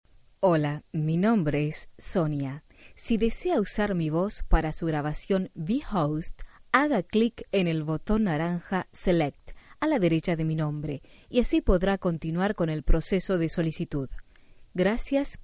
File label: Voice over Sample